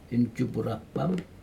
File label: "injupurapam", nearly dark, Aneityum